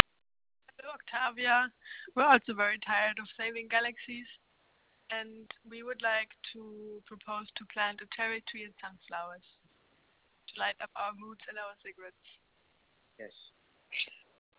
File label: Love Letter EN